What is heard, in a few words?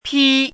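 "pī", Chinese; 坏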